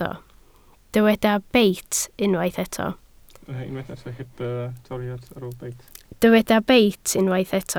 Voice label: "beit", Welsh